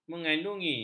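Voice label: "mengandungi", Malay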